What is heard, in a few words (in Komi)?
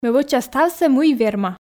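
ме вӧча ставсӧ мый верма, Komi, female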